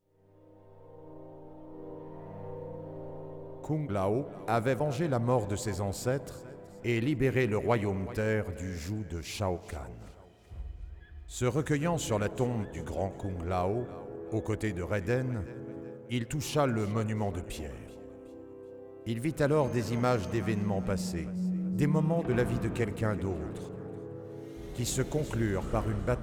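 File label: music voice over endings French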